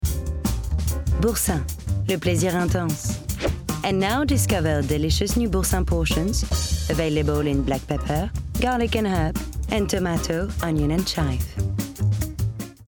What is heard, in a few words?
English French Accent; Boursin – English/French Accent